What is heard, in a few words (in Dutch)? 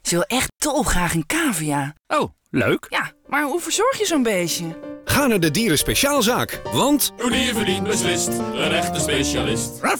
radiospot; kleine knagers